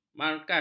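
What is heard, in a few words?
"markas", Malay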